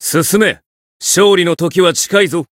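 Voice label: Voice variable chant, Japanese; claude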